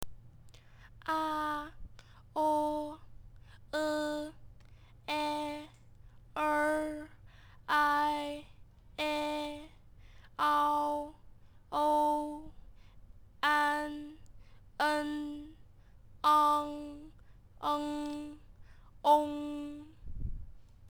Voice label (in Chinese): 韻母a行, Putonghua